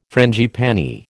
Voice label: "frangipani", American